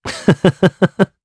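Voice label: Vox Happy Japanese; Esker; kingsraid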